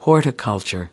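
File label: "horticulture", American English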